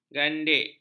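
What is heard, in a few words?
"gandik", Malay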